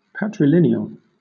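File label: "patrilineal", British English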